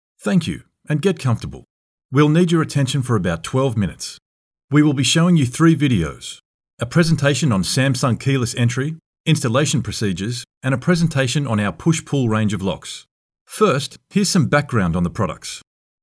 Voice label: Explainer Videos; Samsung Locks

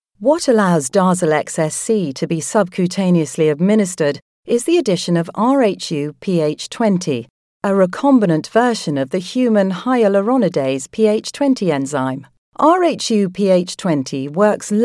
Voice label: Medical Narration; Janssen

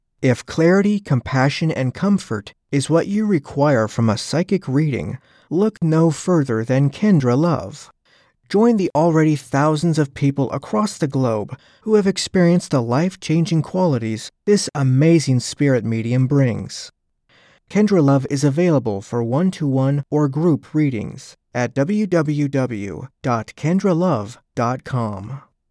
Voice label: voice mail

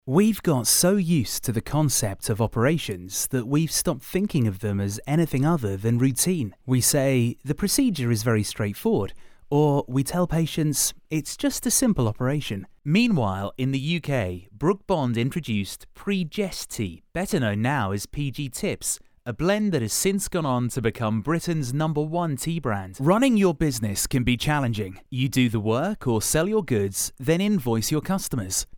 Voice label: Explainer Dry

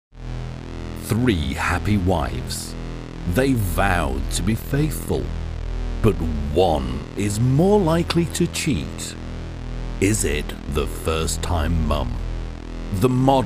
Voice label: news promo

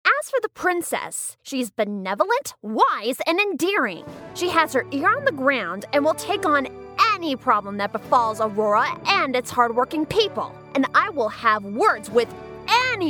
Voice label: Character

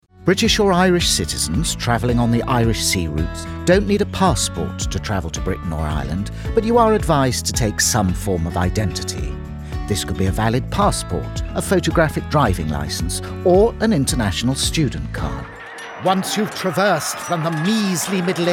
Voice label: Corporate Reel

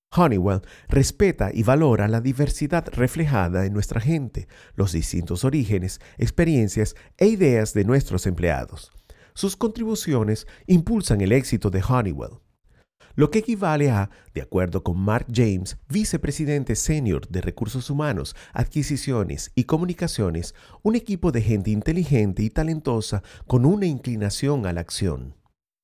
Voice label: eLearning

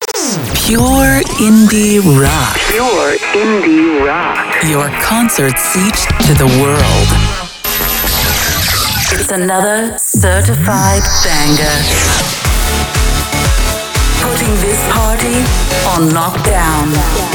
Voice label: DJ DROP AND RADIO IMAGING

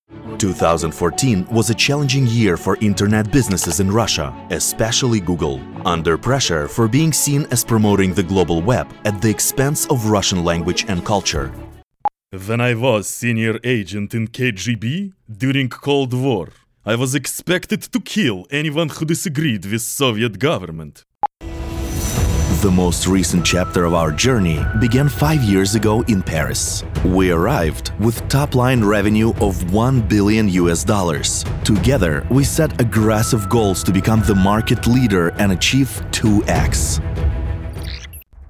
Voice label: ENGLISH

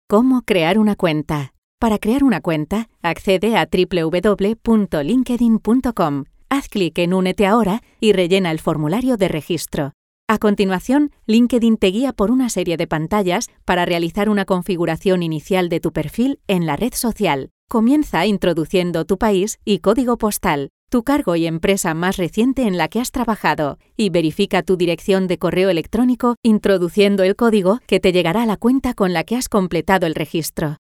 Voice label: elearning redes sociales

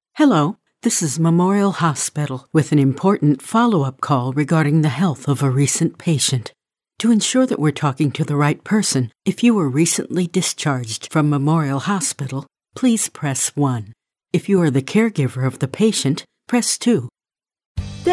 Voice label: Phone Messages

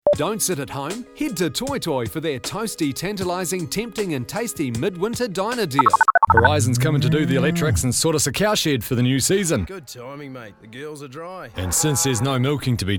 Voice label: NZ

